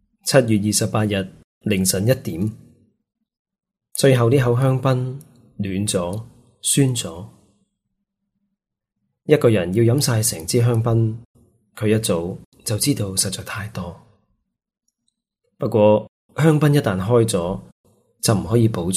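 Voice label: Chinese Cantonese Narrative; GoLocalise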